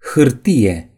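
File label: "paper", Romanian; gcompris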